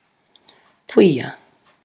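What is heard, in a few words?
"puia", Māori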